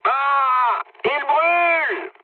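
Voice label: French on fire mood high; War Thunder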